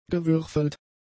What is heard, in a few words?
"gewürfelt", German; adjectives